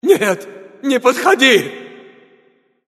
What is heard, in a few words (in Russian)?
river rus